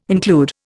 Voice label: "include", Indian